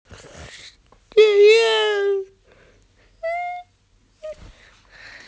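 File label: "swan", Mandarin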